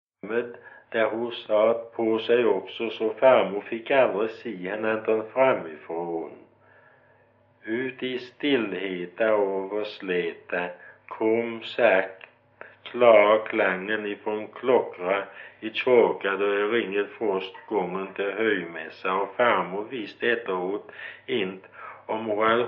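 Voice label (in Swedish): Gårdby Öland, dialekter, svenska sagor; Folkminnen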